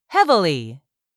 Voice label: "HEAVILY", english; 3000 words

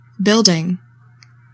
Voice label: "BUILDING", English; 3000 words

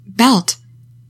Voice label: "BELT", English; 3000 words

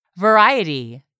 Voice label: "VARIETY", English; 3000 words

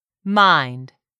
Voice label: "mind", English; 3000 words

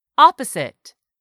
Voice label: "opposite", English; 3000 words

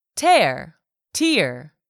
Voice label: "tear", English; 3000 words

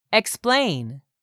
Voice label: "explain", English; 3000 words

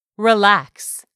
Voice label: "RELAX", English; 3000 words

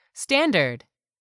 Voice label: "STANDARD", english; 3000 words

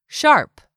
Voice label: "sharp", English; 3000 words

